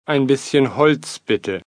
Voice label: wood, please, taunt